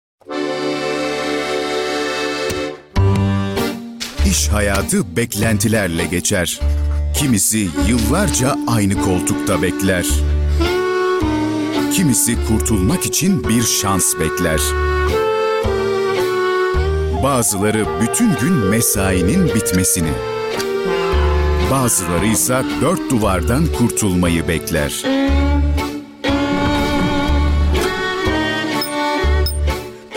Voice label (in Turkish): ERKEK